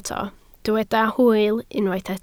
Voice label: "hwyl", Welsh